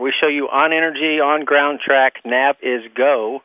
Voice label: NASA high energy on groundtrack, space shuttle communications; VOCALS and SPOKEN WORD